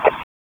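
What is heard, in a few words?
Police North District Tactical 1, p25; Raleigh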